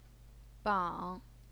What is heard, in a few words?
"bang3", Chinese pinyin